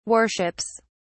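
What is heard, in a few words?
"worships", British English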